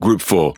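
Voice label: Pirate Speech Pilots Fighter, English pirate; World Of Warships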